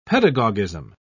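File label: "pedagogism", English, British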